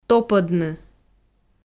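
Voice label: "топӧдны", Komi, female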